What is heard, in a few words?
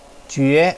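"jve2", Mandarin Chinese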